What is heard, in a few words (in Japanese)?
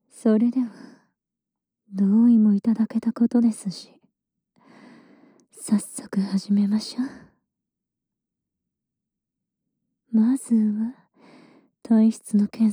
耳舐め 罵倒 無声音; 耳舐めメス調教編; TSレズプレイ